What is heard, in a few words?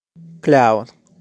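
"Cloud", English; weather; Clima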